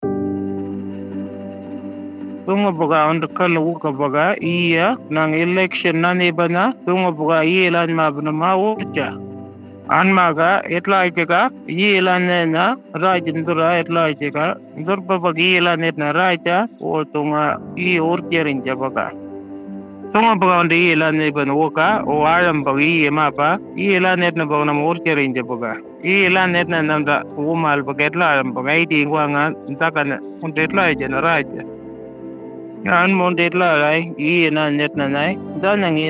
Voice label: AEC Misinfo Western Arrarnta Radio mix; indigenous